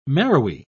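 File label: "marrowy", British English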